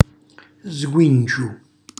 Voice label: "sguinciu", Calabrese; cucina calabrese